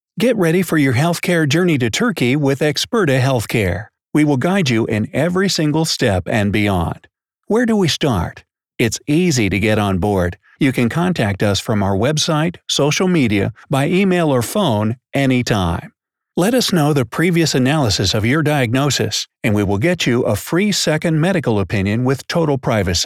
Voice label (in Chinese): 外籍配音美式男 医疗保险之旅 激情 磁性